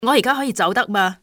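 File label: "can I", female; XBOX; civ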